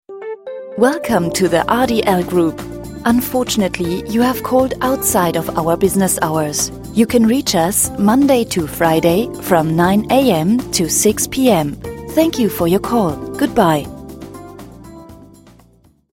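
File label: english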